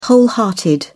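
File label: "wholehearted", British English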